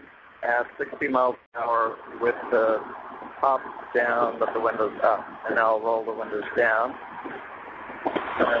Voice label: Sony Ericsson S500i 60mph open, cell phone headsets